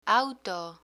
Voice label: "auto", Spanish; picture dictionary

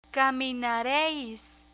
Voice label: "caminareis", Spanish; spanish future indicative intro; learn spanish

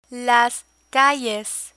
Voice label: "calles", Spanish

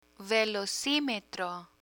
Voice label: "velocimetro", Spanish; picture dictionary

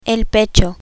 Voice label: "pecho", Spanish; medical vocabulary

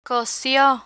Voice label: "cosio", Spanish; medical medicine vocabulary; learn spanish

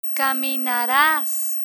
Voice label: "caminaras", Spanish; spanish future indicative intro; learn spanish

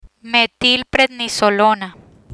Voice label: "Metilprednisolona", Spanish

